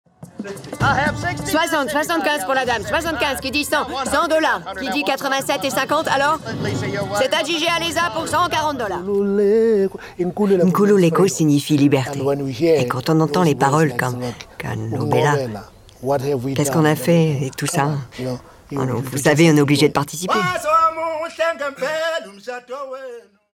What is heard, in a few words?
Medley Voice over; Mezzo-soprano avec une voix claire